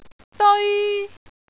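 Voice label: "deoi1", Cantonese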